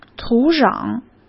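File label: "土壤", Mandarin Chinese; chinese flashcards; hsk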